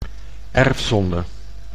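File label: "erfzonde", Dutch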